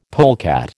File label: "polecat", American